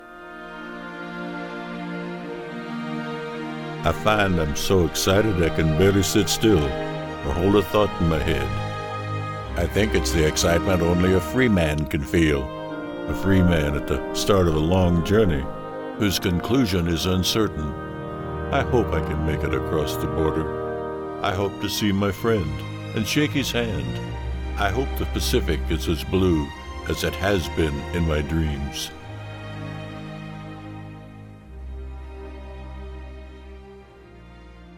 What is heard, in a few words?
Morgan Freemen Style; Shawshank Redemption